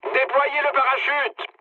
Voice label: bailout mood low, speech fr; War Thunder

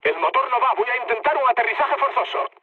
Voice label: damaged engine, mood low, speech; War Thunder

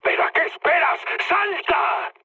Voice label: bailout, mood high, speech; War Thunder

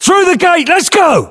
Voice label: voiceovers, US; english

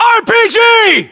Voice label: threat rpg, US

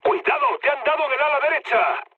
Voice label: right wing, mood med, speech; War Thunder

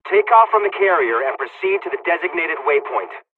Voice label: mission fly, dialogs serbian; War Thunder

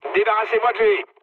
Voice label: aircraft damaged mood low, speech fr; War Thunder

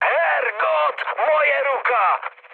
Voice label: damaged pilot, speech cze, mood low; War Thunder

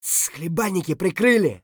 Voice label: silence; Escape from Tarkov; scav3

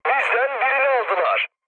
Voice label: aircraft destroyed, mood med, speech tur

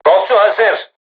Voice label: voice message art charged, turkish

